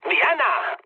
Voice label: bomb success mood med; War Thunder